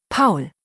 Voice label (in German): "Paul", deutsch, jungennamen